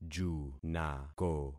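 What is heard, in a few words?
"junako", concatenated syllables; Affixing experiment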